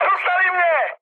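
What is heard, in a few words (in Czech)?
Czech aircraft destroyed, mood medium; War Thunder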